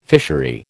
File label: "fishery", American